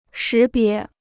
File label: "识别", Chinese